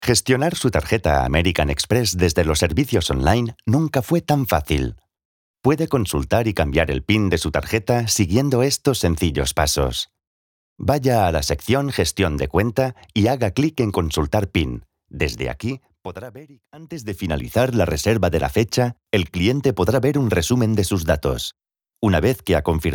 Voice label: spanish ELEARNING